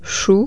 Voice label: cabbage, French